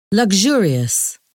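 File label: "luxurious", English word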